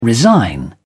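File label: "resign", British English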